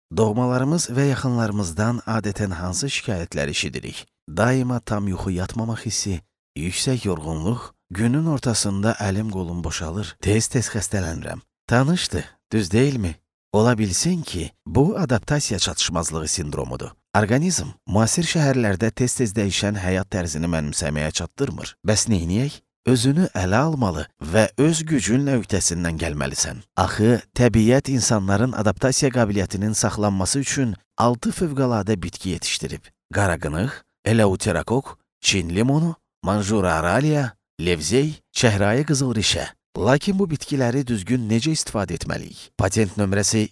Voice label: Azerbaijan male

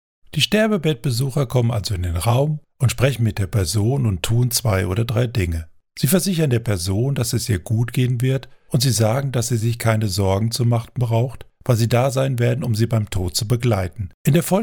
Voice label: German male

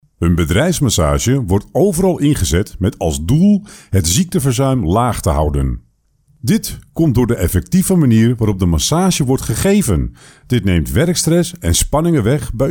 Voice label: Dutch

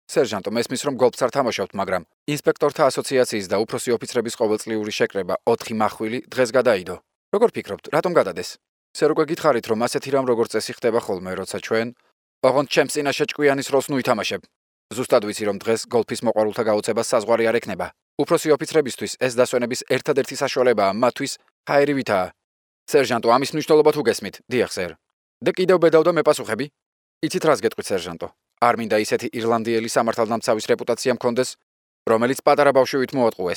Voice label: Georgian male

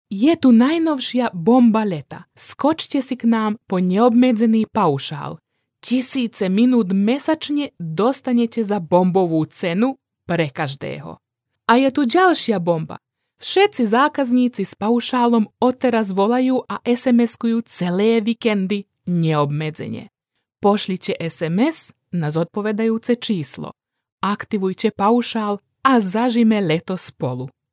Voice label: Slovak female

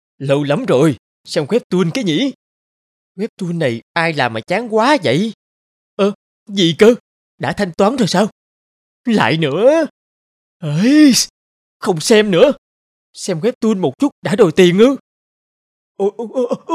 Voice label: Vietnamese male

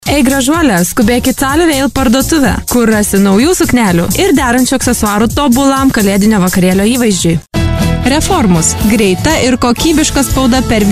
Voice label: Lithuanian female

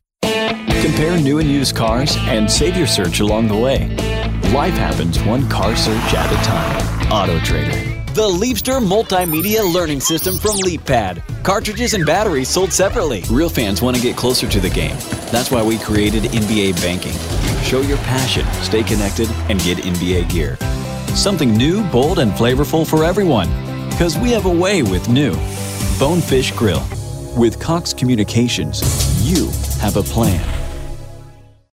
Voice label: English - Southern American

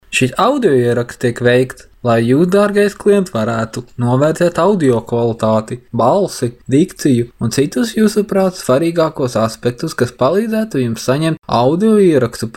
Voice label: Latvian male

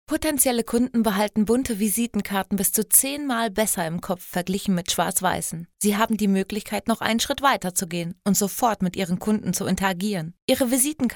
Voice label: German female

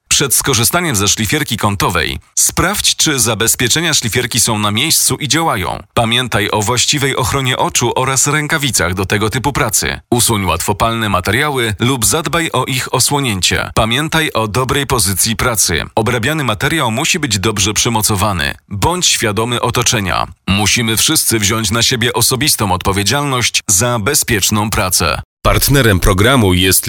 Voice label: Polish male

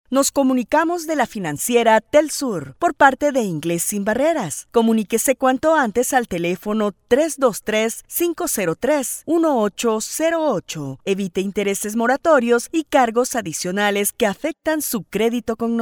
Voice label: Spanish Latin America

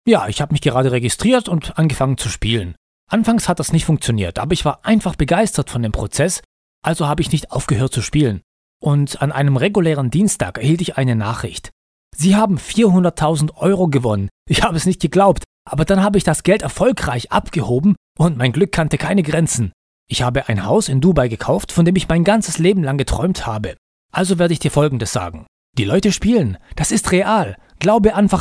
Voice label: German male

